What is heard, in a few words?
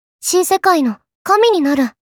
Kawaii Voice, Kami; Memes